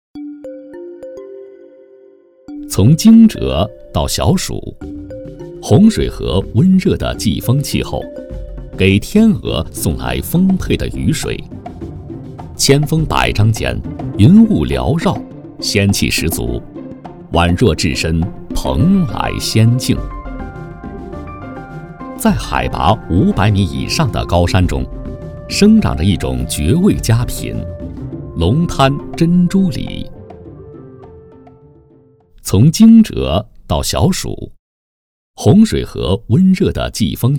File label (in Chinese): 舌尖 模仿 解说词; 舌尖模仿龙滩珍珠李解说词